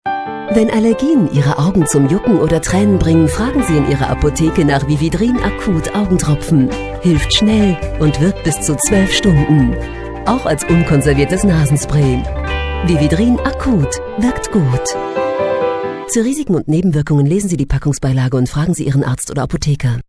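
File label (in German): off, sprecher; LOFT VOICES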